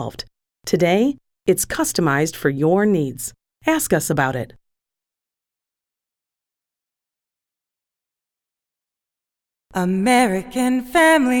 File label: Insurance Farmer Agent Radio; American Family Insurance